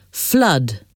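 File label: "flood", English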